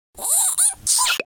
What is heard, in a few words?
idle, speech, Alien; Worms3D